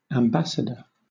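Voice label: "ambassador", British English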